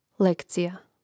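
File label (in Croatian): "lekcija", Croatian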